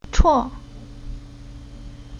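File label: "Cou", Chinese syllable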